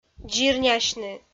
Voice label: "дзирнясьны", Komi

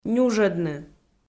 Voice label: "нюжӧдны", Komi

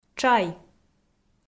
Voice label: "тшай", Komi

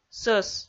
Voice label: "сӧс", Komi

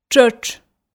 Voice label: "тшӧтш", Komi

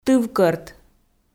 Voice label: "тывкӧрт", Komi

